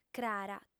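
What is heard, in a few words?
"crara", Sardinian, female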